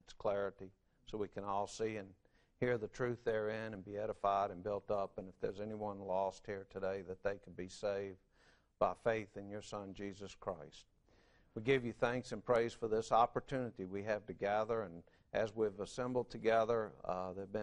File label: English